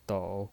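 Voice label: "dou" Mandarin Chinese, third tone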